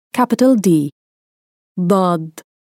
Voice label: "Daad", arabic

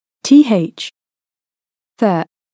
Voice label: "thaa", arabic

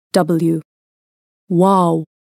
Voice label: "wow", Arabic

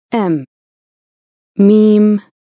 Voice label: "meem", Arabic

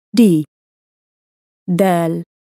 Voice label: "daal", Arabic

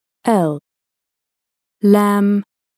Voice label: "laam", arabic

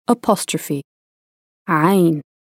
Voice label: "ayn", Arabic

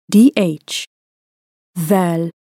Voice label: "dhaal", Arabic

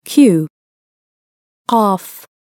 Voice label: "qaaf", arabic

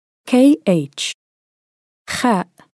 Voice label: "khaa", Arabic

